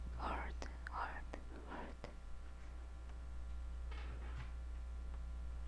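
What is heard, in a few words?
"hold", spoken word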